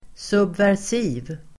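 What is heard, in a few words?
"subversiv", Swedish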